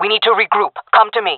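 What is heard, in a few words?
request regroup, jungle female; vo; agents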